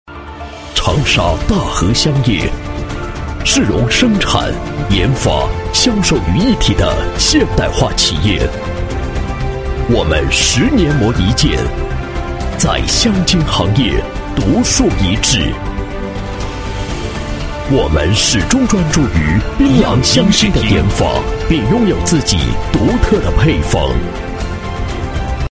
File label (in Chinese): 男 专题 乡间企业 浑厚大气, 国语男声配音